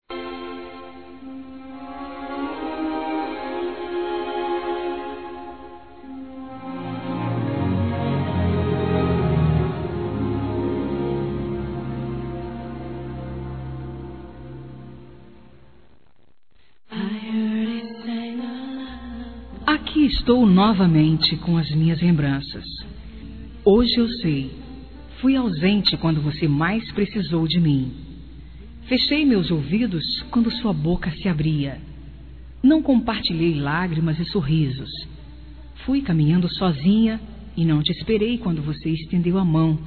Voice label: PERDOA ME, fem; Reconciliação

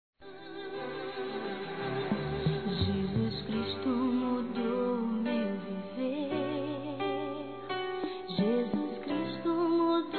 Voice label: Trilha ANIV. FILHO F, Telemensagens, Gospel, fem; Telemensagens 2017

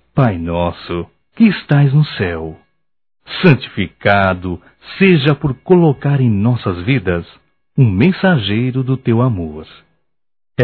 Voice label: Homenagem ao Padre Masculino; Homenagens; Telemensagens 2017

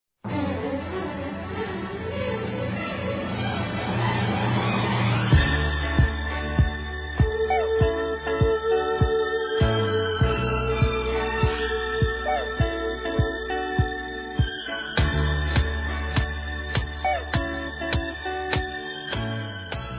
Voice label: ANO NOVO NEUTRO M

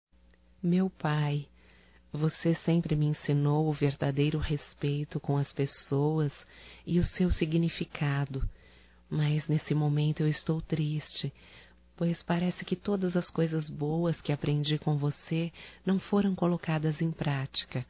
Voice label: Desculpas ao Pai Feminino, Telemensagens

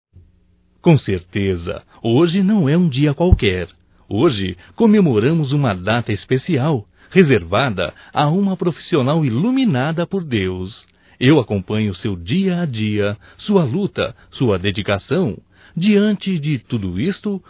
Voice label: Dia Médica Romântico Masculino, Telemensagens, Só Voz; DATAS COMEMORATIVAS

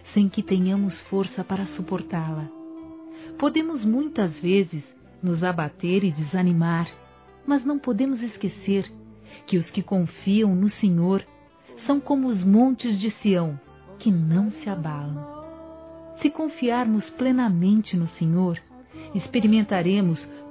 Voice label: DEUS É FIEL F, Telemensagens, Gospel, fem; Telemensagens 2017

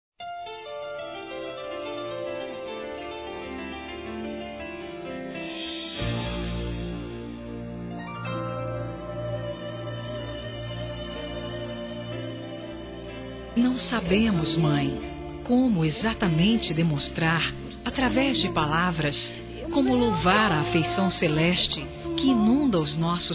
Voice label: GERAL F; Telemensagens 2017; Dia das Mães

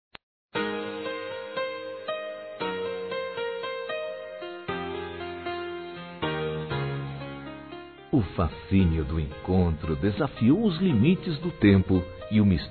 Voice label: Noivado Masculino; Telemensagens; Parabenização

